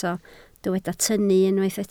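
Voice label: "tynnu" Welsh, spoken word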